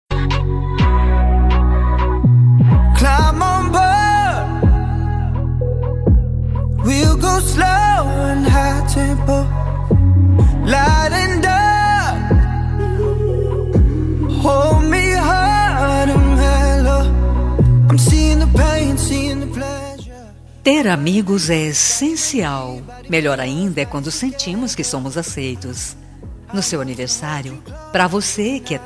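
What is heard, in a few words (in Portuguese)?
birthday AMIGA female, telemensagem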